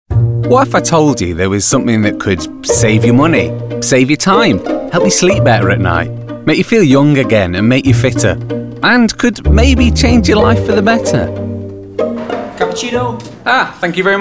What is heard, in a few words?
british commercial; BRITISH » British, persuasive and appealing, range of accent work from ancient English vampires to grizzled American assassins.